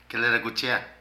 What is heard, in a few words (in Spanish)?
Cena, Zapoteco de Petapa; Vocabulary